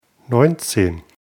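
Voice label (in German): "neunzehn", German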